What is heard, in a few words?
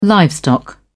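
"livestock", British English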